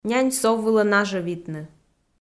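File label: "нянь-сов вылӧ нажӧвитны", Komi, female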